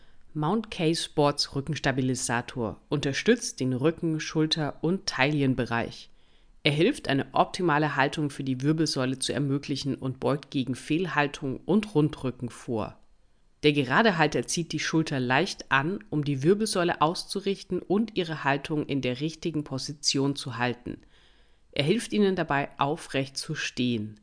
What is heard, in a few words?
GERMAN